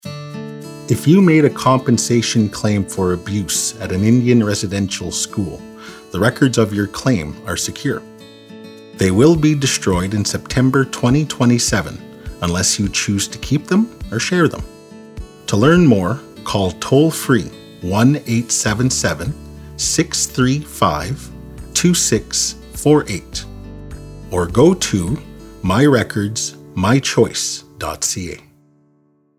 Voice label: ENG RADIO MIX; Epiq